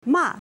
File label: fall, Mandarin